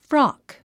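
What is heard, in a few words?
"frock", English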